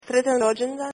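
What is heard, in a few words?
happy birthday croatian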